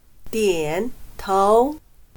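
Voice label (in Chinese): "点头", Chinese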